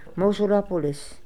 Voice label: "Mosulopolis", pronounciations; Fourth Way; Beelzebub